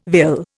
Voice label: "vill", Indian